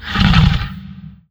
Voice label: Zombie